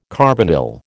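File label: "carbonyl", American English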